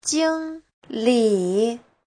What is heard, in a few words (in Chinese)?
"jing1li3", 经理; (danh từ) giám đốc